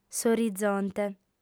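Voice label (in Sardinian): "s'oritzonte", female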